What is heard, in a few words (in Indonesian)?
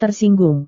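"tersinggung", Indonesian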